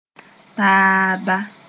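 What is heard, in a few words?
"taaba", Mooré; others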